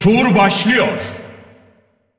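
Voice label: announcer female tournaments round start; clashvo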